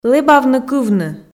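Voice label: "лэбавны-кывны" Komi, female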